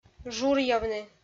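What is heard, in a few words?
"журъявны", Komi, female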